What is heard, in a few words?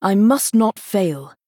Female Night Elf Thinking; Elise